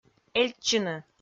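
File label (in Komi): "эльтчыны", Komi, female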